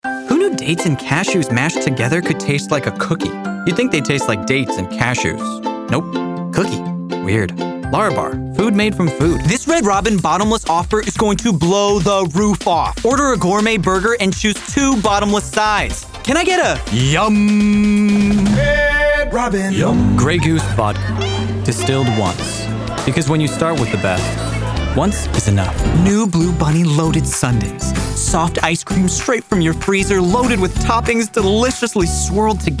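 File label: COMMERCIAL voice over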